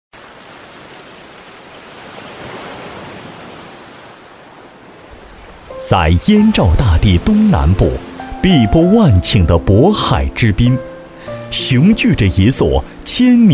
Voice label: 男 专题 宣传片

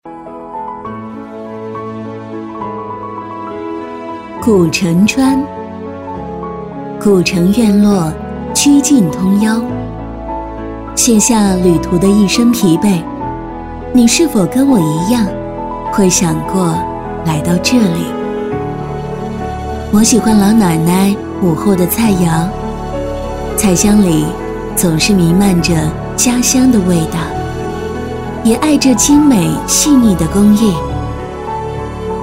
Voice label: 女 解说; 古城川

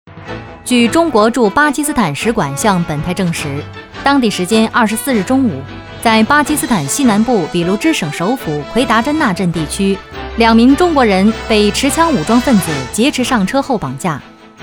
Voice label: 女 新闻播报

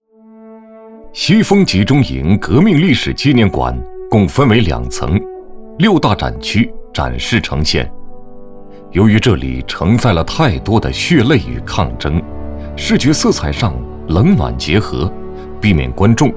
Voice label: 男 项目介绍; 展馆介绍

